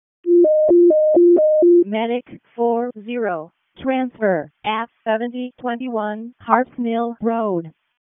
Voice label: WC EMS ALERT, p25; wake